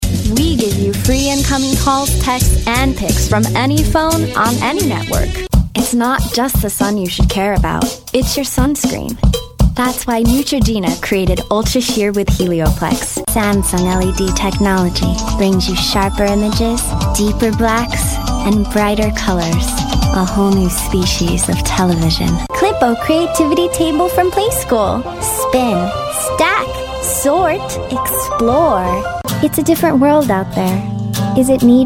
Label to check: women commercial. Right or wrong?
Right